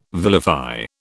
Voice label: "vilify", British